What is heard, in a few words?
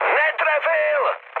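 bomb failure, Czech, mood med; speech; War Thunder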